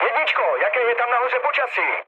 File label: chat, speech Czech; War Thunder